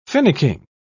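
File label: "finicking", British English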